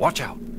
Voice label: "watch out", male; Voice